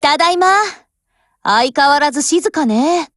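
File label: OPERATION OVER, Japanese; GF Alarm; Glock17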